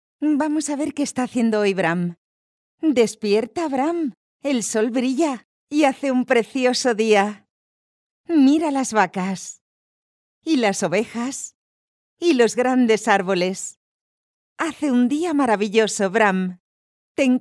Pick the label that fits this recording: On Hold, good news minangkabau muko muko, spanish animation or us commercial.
spanish animation